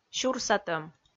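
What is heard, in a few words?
"сюрсатӧм", Komi, female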